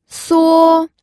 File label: "suo1", pinyin